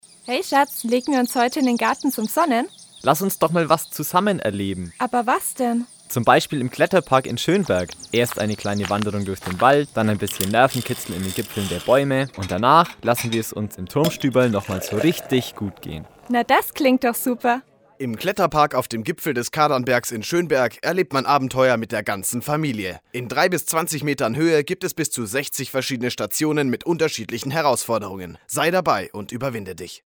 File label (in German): Werbung; Erlebnis Akademie